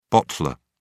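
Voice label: "bottler", British English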